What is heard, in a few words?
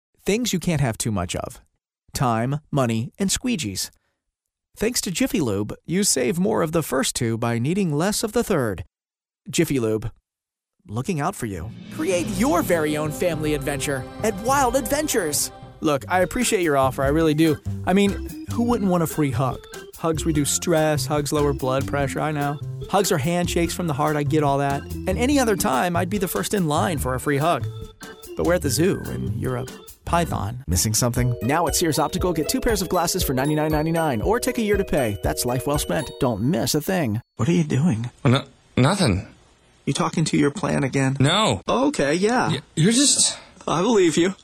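Commercial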